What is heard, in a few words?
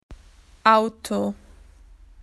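"car", Australian English; PictureDictionary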